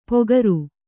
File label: "ಪೊಗರು", Kannada